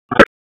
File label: Police Northwest District Tac 1